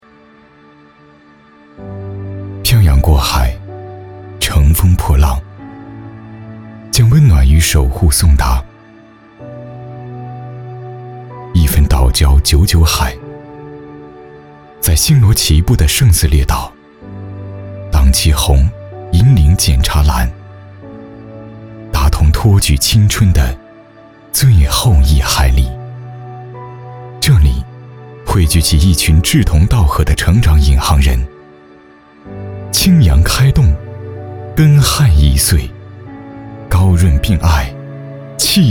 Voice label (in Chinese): 男 走心 漂洋过海